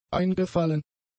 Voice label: "eingefallen", German; adjectives